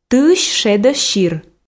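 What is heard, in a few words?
"тыысь шедӧ сир", Komi, female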